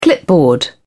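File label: "clipboard", British English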